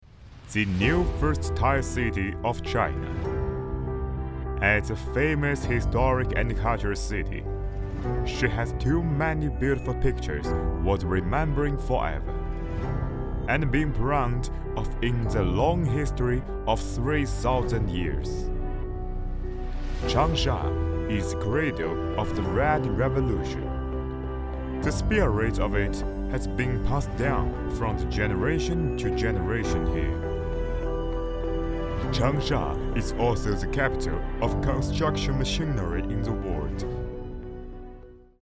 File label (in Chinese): 英文宣传片